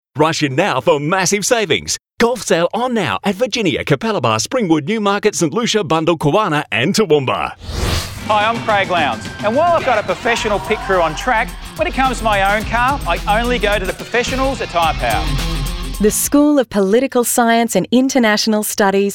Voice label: Commercial Samples